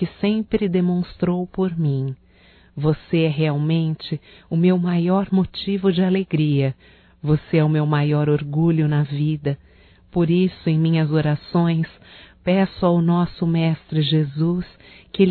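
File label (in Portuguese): Agradecimento para filho female, Só Voz; Telemensagens; AGRADECIMENTOS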